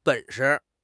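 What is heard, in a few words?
"本事", Chinese; HSK6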